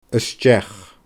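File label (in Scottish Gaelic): "a steach", Scottish Gaelic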